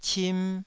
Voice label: "cim2", Taiwanese